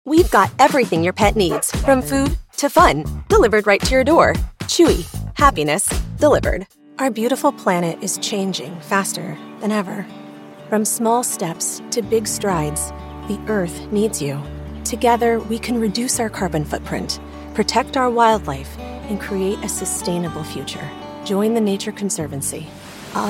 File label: Commercial